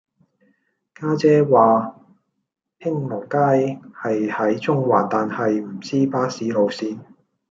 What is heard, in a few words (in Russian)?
Голоса, Гонконгский